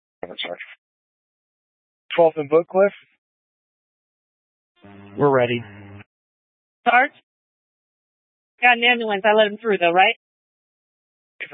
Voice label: Tactical Incidents, scanner